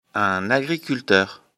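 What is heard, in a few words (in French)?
"agriculteur", French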